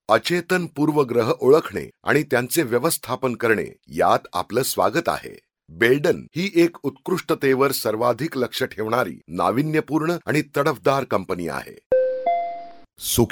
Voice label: marathi male

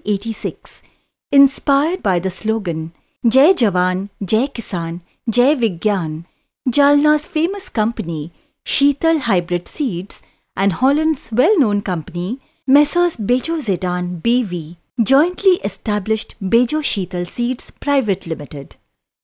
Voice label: Eng Indian Female

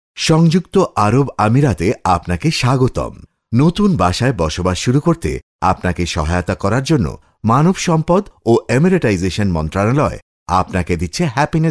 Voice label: Bengali Male

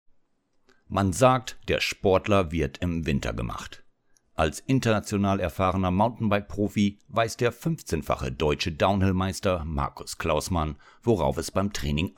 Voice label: german male

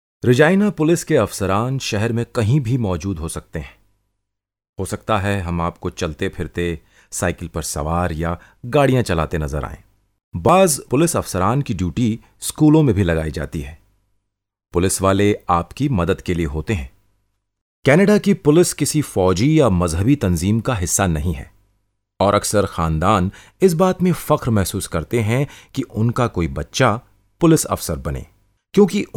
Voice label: Urdu Male

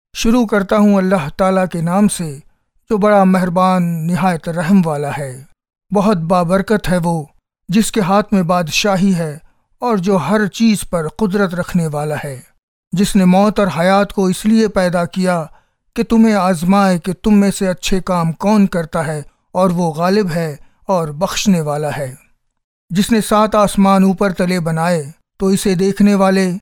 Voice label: Urdu Male

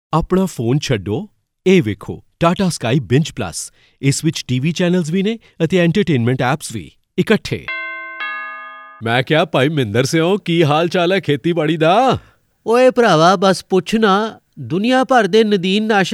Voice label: Punjabi Male

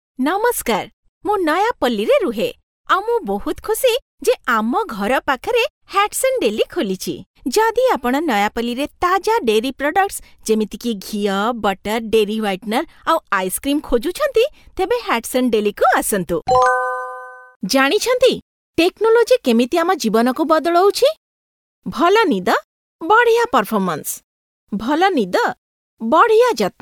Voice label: oriya female

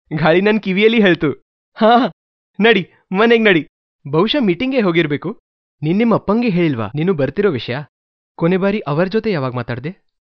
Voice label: Kannada Male

